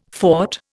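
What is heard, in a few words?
"fought", Indian English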